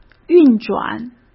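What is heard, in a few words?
"运转", Mandarin Chinese; hsk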